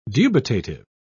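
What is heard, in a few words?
"dubitative", British English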